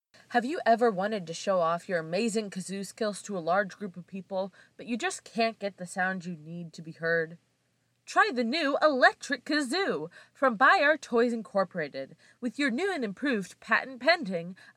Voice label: commercial